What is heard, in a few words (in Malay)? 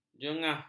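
"jengah", Malay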